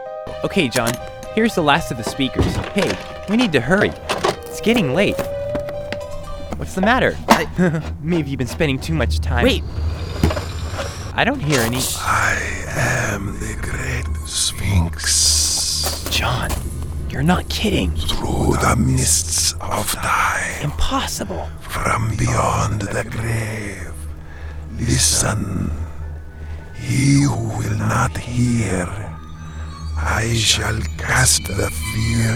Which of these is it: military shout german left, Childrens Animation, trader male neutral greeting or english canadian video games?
Childrens Animation